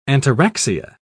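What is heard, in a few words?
"ataraxia", British English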